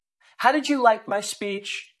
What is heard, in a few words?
Voice Acting, es